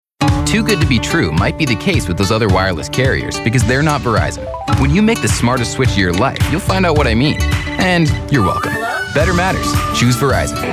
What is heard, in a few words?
Voiceovers